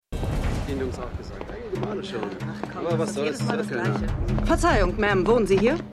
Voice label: Polizistin; Jessica Jones

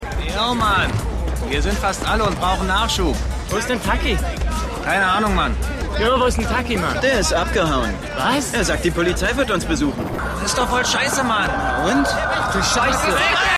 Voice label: Junge Dealer; The Wire

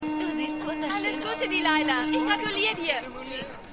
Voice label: Frau; The 100

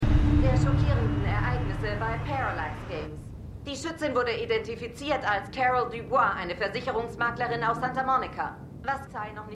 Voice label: Nachrichtensprecherin; The Lincoln Lawyer

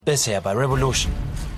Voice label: Erzaehler; Revolution

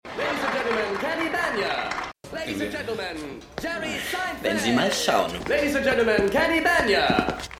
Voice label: Ansager, Stimmproben; Seinfeld

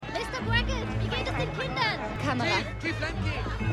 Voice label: Reporterin Reporter; Mad City

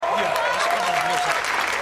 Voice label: Mann Publikum; Die Nanny

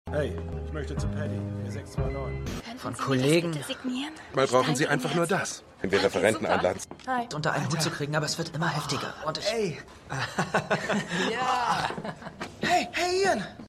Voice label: Mann Fan F Schwester Hi Fans M; Shameless

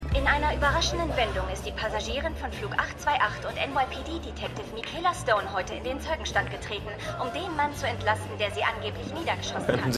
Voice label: Reporterin; Manifest

